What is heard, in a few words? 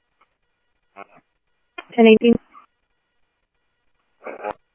Police, scanner; H-Scottsdale; ScottsdalePolice